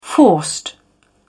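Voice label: "forced", en, uk British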